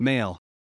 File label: Project MALE